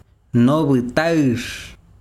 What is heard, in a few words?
besiro verde, chiquitano